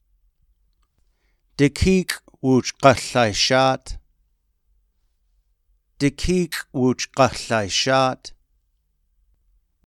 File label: tlingit; values hold each other up